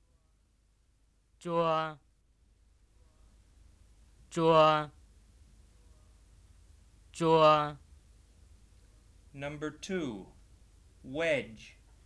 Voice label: White Miao